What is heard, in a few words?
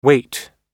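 "wait", American English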